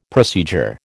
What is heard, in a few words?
"procedure", American